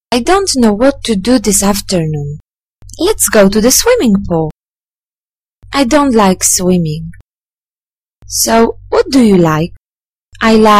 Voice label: dialog